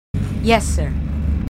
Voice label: marines female

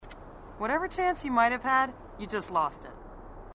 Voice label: female marine, sassy

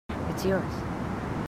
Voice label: marines female, marine tough

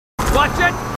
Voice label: marines, aussie; h2dialogue

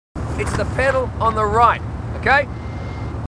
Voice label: marines, aussie; h2dialogue

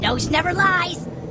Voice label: grunt; h3dialogue; staten

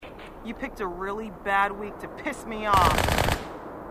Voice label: marine female, sassy

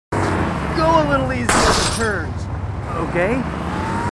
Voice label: marines, marine timid; h2dialogue

